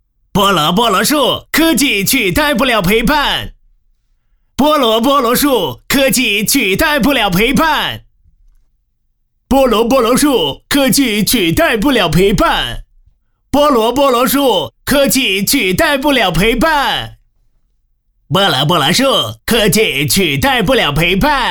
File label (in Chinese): 男 模仿 动画片 模仿音; 唐老鸭; 海绵宝宝